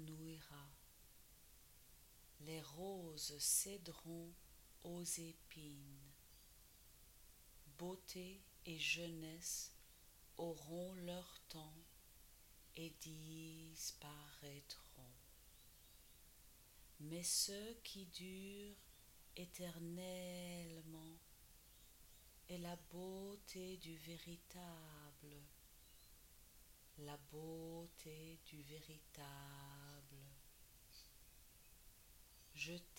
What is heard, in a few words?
french pronunciation